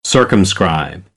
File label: "circumscribe", American English; ɝː - ɜː